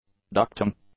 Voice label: "ducting", English; nouns